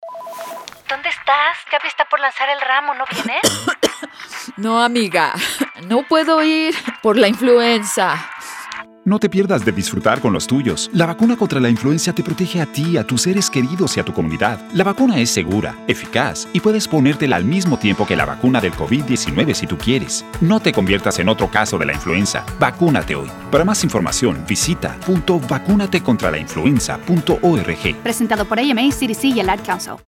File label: Flu Season Phone Call; AdCouncil